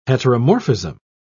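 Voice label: "heteromorphism", English, British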